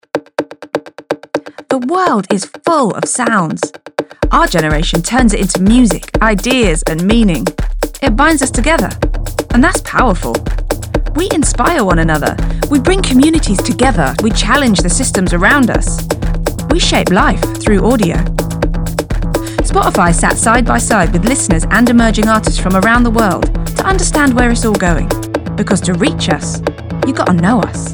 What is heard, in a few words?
Commercial; Spotify